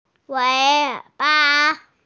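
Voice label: "tail", Mandarin Chinese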